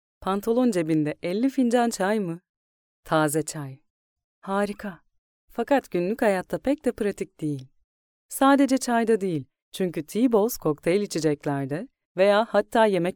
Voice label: female turkish voice over sales

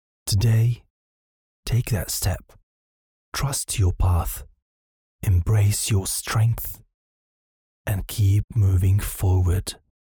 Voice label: male arabic voice over english

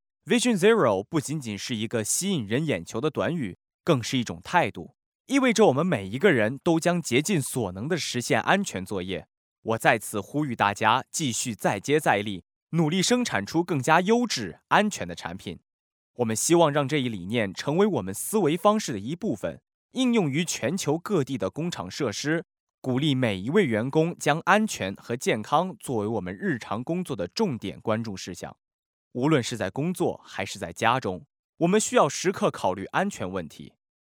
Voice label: male chinese voice over talent narrative

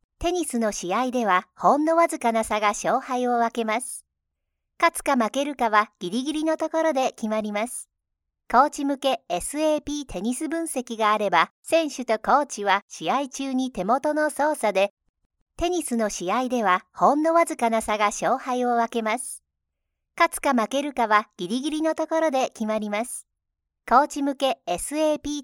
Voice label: female japanese voice over upbeat

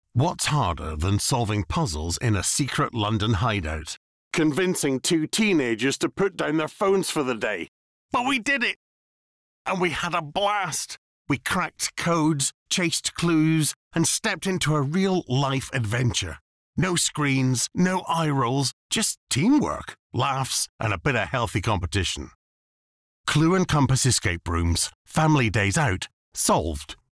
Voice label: male british voice over upbeat, english british

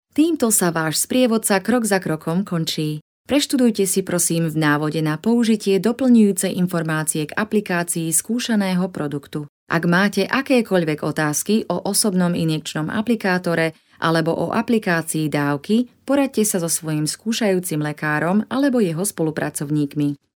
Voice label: female slovakian voice over talent narrative

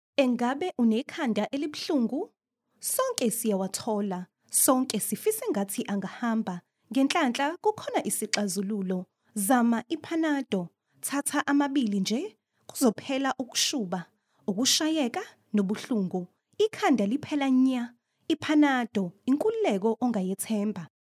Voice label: female zulu voice over narrative

